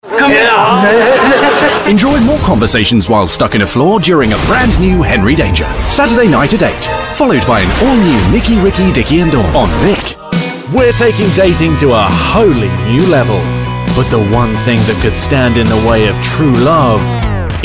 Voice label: languages male promo